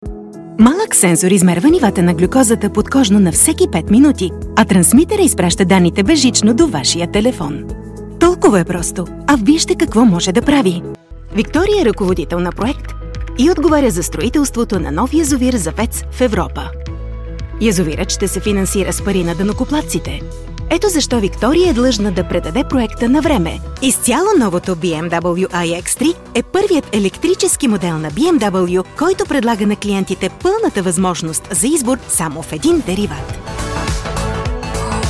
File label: Bulgarian